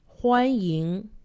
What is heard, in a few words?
"huanying", Chinese; Survival Chinese